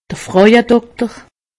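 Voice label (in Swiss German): "frauedokter", Alsatian; medecine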